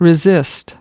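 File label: "resist", English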